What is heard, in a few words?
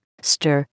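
"stir", English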